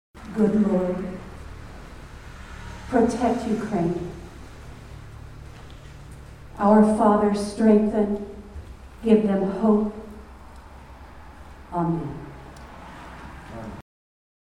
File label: Narration